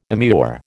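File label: "immure", American English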